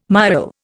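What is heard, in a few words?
"miaul", English, Indian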